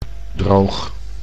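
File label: "droog", Dutch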